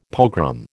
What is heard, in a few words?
"pogrom", American